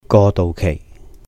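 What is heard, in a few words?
"Gwo Douh Keih", Cantonese; transition period; 過渡期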